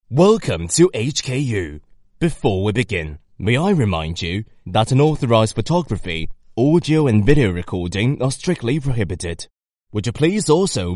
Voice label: Chinese English M Corporate, accent of china; voice-over